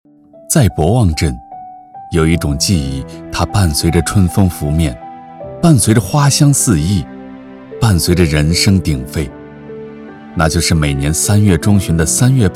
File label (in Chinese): 男 纪录 叙述 娓娓道来 讲故事